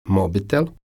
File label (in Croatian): "mobitel", Croatian